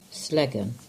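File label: "Sellegan", English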